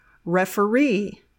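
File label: "referee", English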